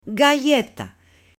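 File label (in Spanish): "galleta" Spanish, male; ALIMENTOS; AppLenguaje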